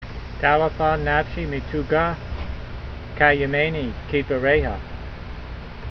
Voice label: voice, hebrew language; Psalm 119:28; da'le'fah nafshee mee'too'gah, ka'yemaynee keedvareyha